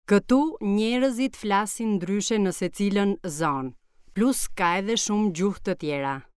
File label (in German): Albanisch